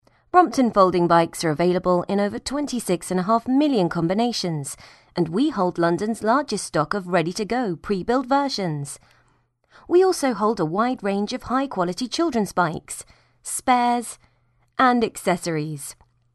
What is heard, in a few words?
corporate